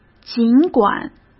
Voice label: "尽管", cmn; hsk

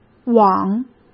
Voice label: "网", cmn; hsk

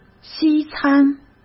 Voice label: "西餐", cmn; hsk

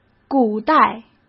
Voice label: "古代", cmn; hsk

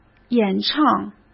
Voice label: "演唱", cmn; chinese-flashcards; hsk

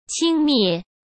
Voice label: "轻蔑", cmn; chinese-flashcards; hsk

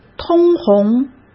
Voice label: "通红", cmn; hsk

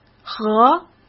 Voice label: "和", cmn; chinese flashcards; hsk

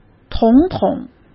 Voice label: "统统", cmn; chinese-flashcards; hsk

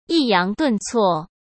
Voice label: "抑扬顿挫", Chinese; hsk

